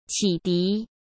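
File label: "启迪", Mandarin Chinese; chinese flashcards; hsk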